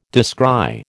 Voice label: "descry", American

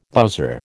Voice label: "bowser", American

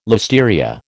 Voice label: "listeria", American

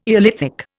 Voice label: "eolithic", Indian

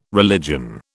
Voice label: "religion", British

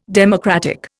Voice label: "democratic", Indian

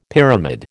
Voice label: "pyramid", American

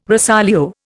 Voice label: "rosolio", Indian

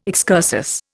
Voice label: "excursus", Indian

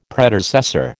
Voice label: "Predecessor", American

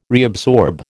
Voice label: "reabsorb", American

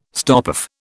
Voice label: "stopoff", British

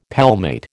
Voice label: "palmate", American

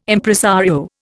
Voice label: "Impresario", Indian

